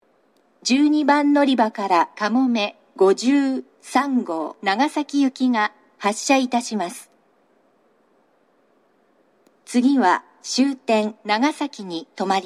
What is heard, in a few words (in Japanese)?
12番のりば発車放送, 西九州新幹線ホーム 自動放送; 12番のりば発車放送（かもめ53号 長崎行き）